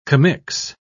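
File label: "commix", English, British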